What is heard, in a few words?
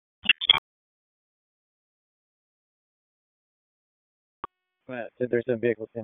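Raleigh Police Southeast District Dispatch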